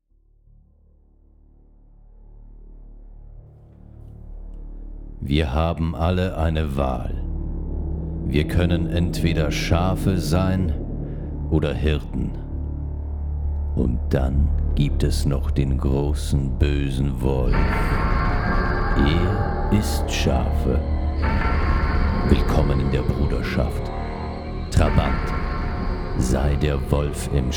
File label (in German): Werbung; Trabant